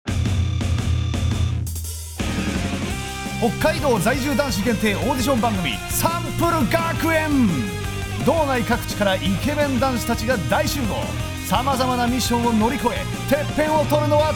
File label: voice narration